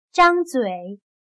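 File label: "张嘴", Chinese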